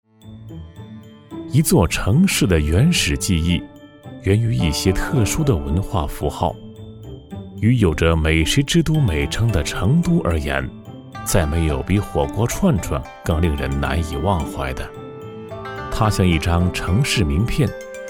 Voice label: 男, 舌尖风格; 签王之王

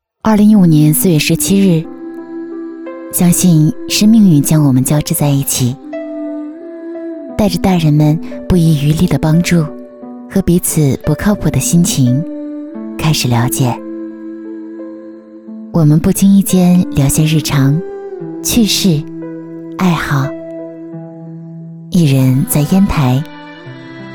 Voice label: 女 独白 婚礼 订婚 深情

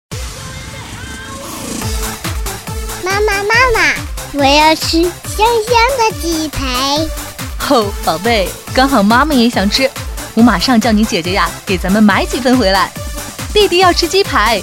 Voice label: 女, 童声; 和妈妈合作

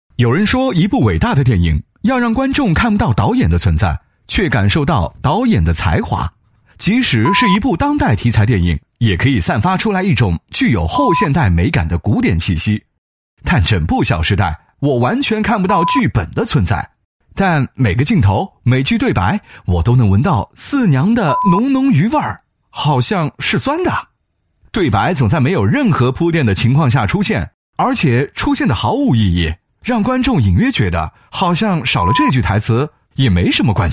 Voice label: 男 专题 电影点评 轻松风格, 国语男声配音 磁性

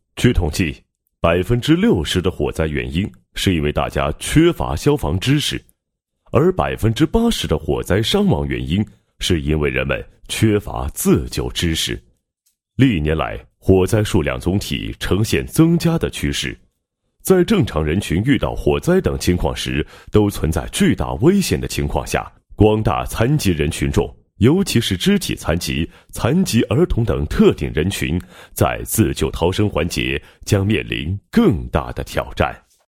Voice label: 男-解说-火场逃生方法

